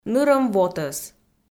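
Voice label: "нырӧм вотӧс", Komi, female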